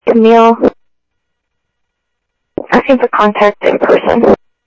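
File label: Sheriff East County, scanner audio trunk; ThompsonPeakScot; MCSO - EAST; Sheriff-EastCnty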